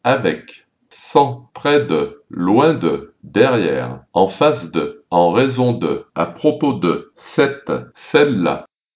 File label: french words